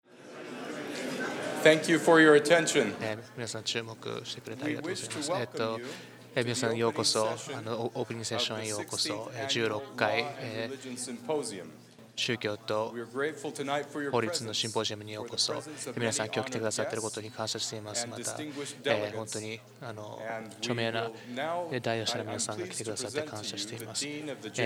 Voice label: Japanese; iclrs symposium 2009